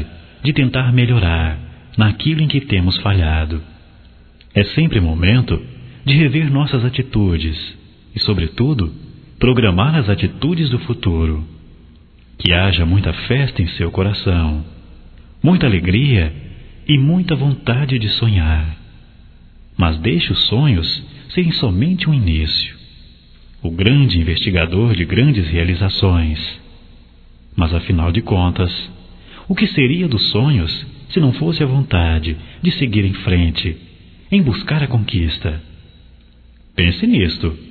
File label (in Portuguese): Aniv para padrinho, masc; Telemensagens 2017; Aniversarios